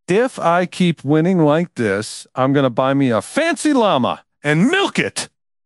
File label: happy, speech; fertig classic games